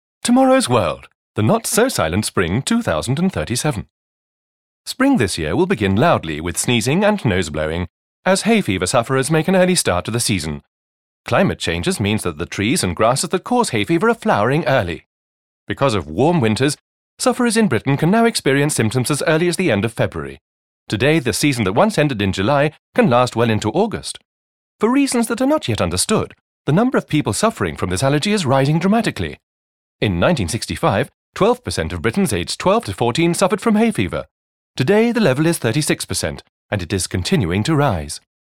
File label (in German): Eng UK Kommentar Dynamisch